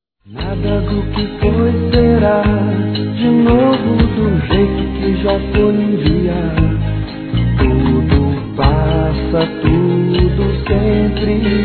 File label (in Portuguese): Cuidado com Stress Masculino; Telemensagens; Otimismo